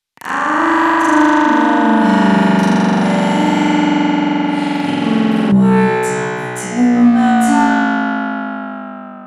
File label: robot voice